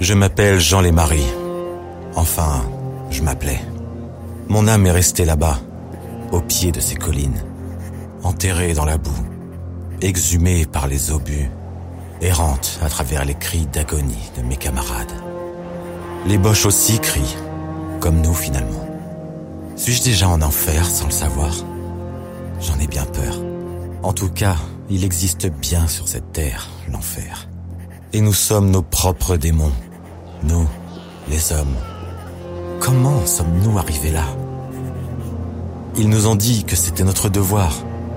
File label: french narration